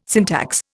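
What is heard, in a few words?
"syntax", Indian English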